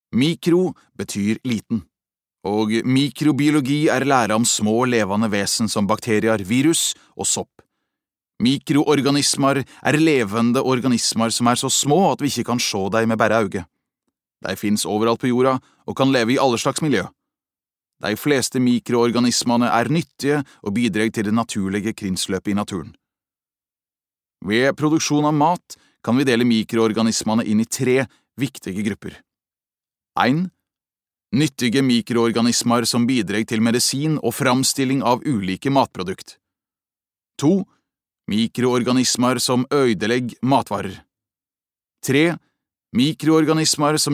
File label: no nynorsk